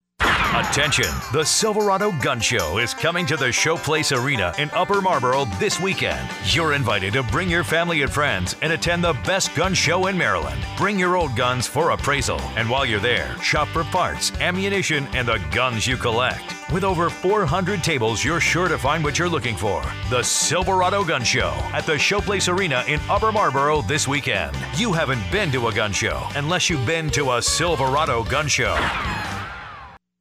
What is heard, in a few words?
radio ad; Silverado Gun Show